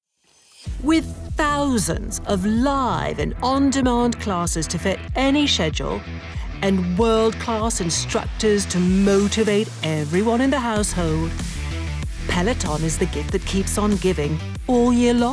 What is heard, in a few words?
Commercial UK Accents